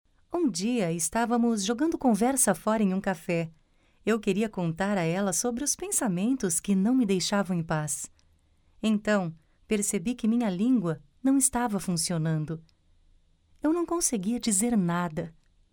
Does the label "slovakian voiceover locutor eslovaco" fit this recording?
No